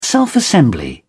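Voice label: "self assembly", British English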